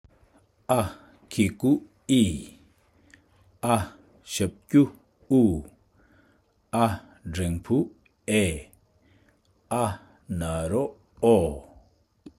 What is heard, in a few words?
Vowels with Letter ཨ།, basic tibetan